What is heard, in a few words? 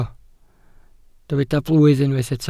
"blwydd", welsh